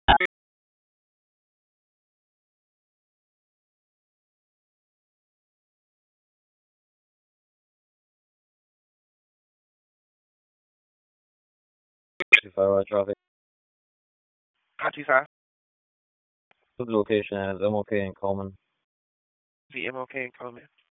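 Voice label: Police Southeast District Dispatch; Raleigh; wake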